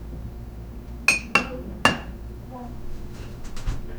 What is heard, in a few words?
a tense home environment, argument